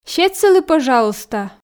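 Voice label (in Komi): сет сылы пӧжалуйста, Komi, female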